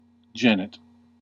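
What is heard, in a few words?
"genet", American English; pronunciations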